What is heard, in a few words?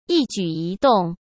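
"一举一动", Mandarin Chinese; chinese-flashcards; hsk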